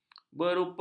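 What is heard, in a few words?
"berupa", Malay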